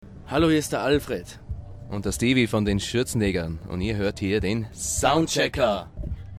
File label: Station ID